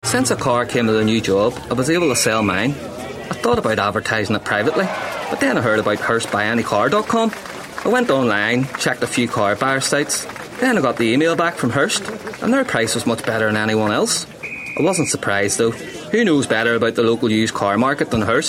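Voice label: Irish English, Commercial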